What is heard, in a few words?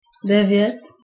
nine, Czech